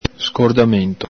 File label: "scordamento", Veneto